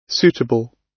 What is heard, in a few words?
"suitable", gb